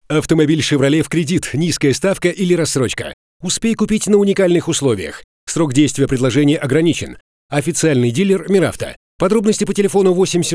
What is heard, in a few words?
Taimer M voice